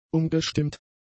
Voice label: "umgestimmt", German; adjectives

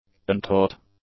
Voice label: "untaught", english; adjectives

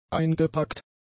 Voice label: "eingepackt", German; adjectives

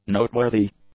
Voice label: "noteworthy", english; adjectives

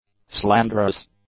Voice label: "slanderous", English; adjectives

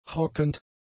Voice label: "hockend", German; adjectives

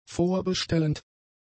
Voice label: "vorbestellend", German; adjectives

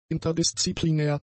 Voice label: "interdisziplinär", German; adjectives

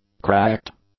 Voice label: "cracked", English; adjectives

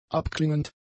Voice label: "abklingend", German; adjectives

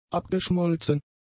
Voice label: "abgeschmolzen", German; adjectives

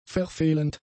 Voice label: "verfehlend", German; adjectives

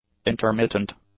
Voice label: "intermittent", english; adjectives

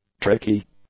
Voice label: "tricky", English; adjectives

